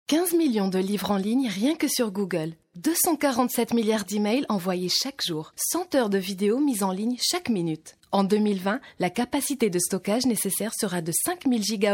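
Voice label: french tunisian female narration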